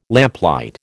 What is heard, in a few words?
"lamplight", American